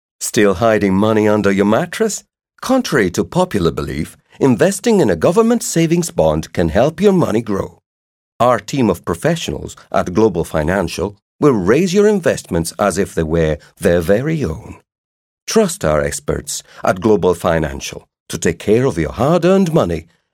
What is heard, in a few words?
Commercial Believable